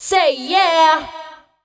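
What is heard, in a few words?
vocal female, Dance Vocals, spoken words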